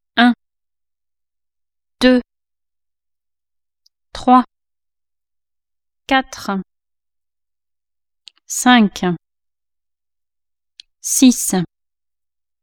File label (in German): franzoesisch